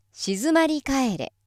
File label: female